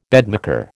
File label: "bedmaker", American